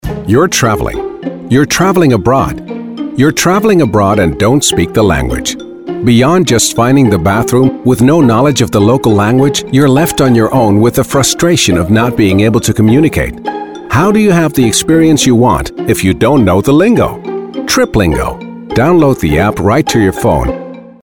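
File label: English upbeat understanding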